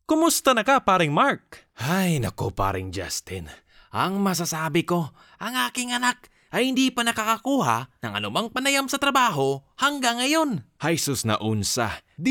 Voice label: tagalog promos